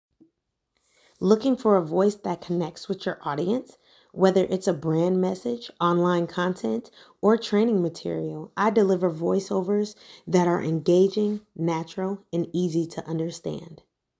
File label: voiceover